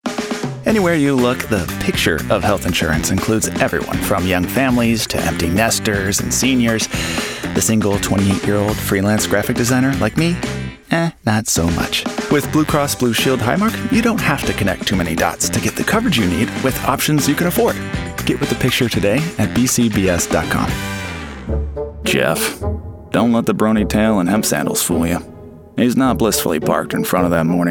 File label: commercial